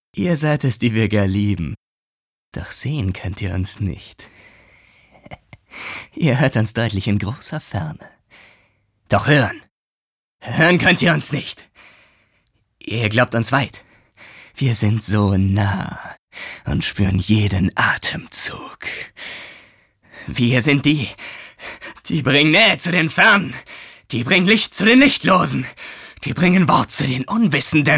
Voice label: psycho, maenner; Sprecher

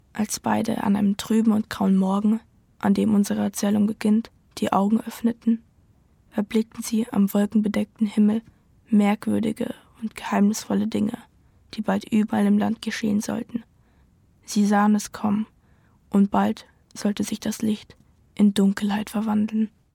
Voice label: maedchen; Licht in Dunkelheit

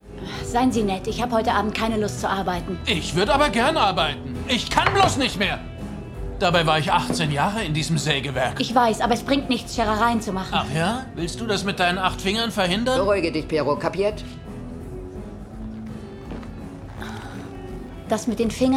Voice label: Synchron; Black Spot

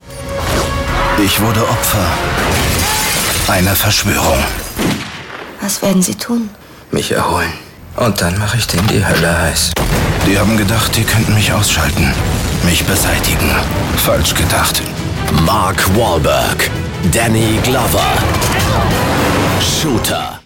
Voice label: Trailer, maenner; shooter

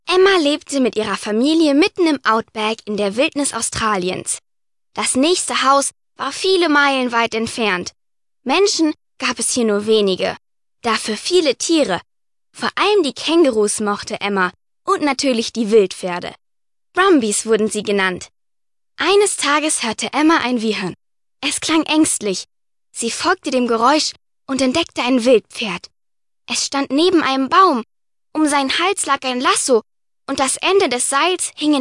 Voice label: maedchen; TipToi; Reiterhof

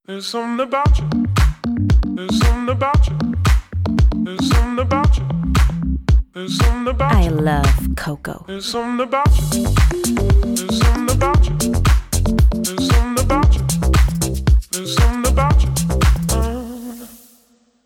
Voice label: Spots, frauen; Chanel Rouge Coco

